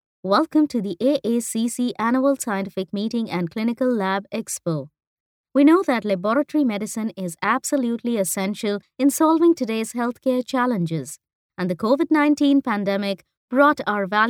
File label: english indian